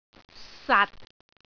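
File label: "sat6", Cantonese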